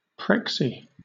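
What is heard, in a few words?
"prexy", British English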